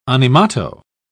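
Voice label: "animato", British English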